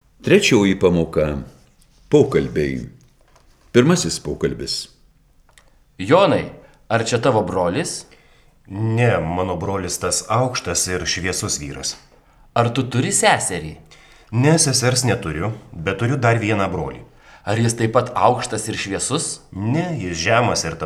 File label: Dialog